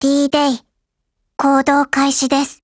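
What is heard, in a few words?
GO ATTACK, Japanese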